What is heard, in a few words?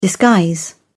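"disguise", British English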